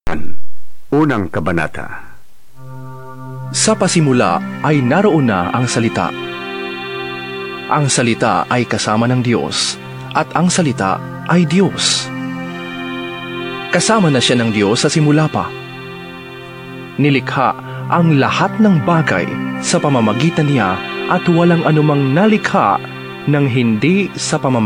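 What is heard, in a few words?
Tagalog, bible reading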